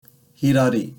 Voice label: "Hidari" Japanese

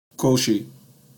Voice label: "koshi", Japanese; Basic Japanese Terms

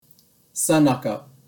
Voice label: "senaka" Japanese

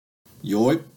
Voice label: "Yoi-dachi" Japanese

